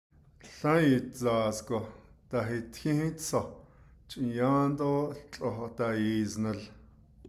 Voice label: It snowed a long time ago and the hilocopter drop, navajo; clauses